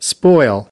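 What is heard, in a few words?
"spoil", English word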